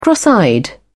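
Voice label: "cross eyed", British English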